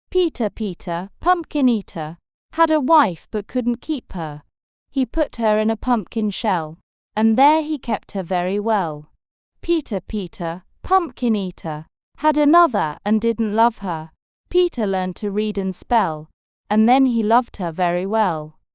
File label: fem brit; Peter Peter Pumpkin Eater